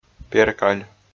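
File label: "перкаль", Komi, male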